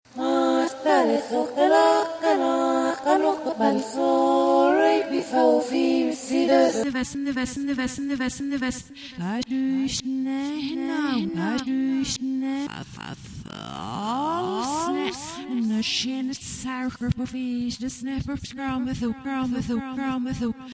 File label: voice ringtone